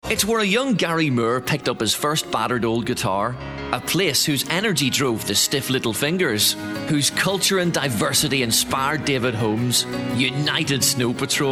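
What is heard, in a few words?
English Irish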